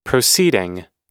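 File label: "proceeding", American English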